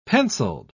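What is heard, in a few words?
"penciled", English, British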